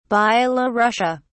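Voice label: "byelorussia", English, British English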